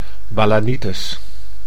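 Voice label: "balanitis", Dutch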